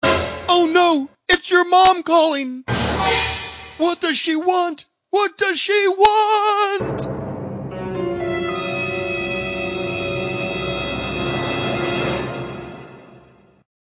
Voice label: your mom calling funny tone